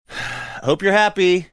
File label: sighing saying hope you're happy